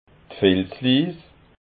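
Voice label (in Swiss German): "filzliis", Alsatian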